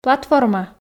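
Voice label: "платформа", Komi, female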